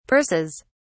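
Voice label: "purses", British English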